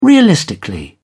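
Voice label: "realistically", British English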